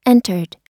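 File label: "entered", American English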